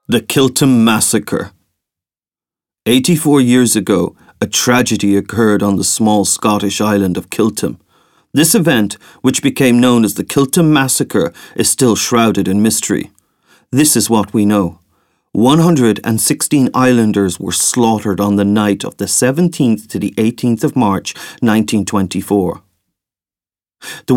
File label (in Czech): ukazka audio kniha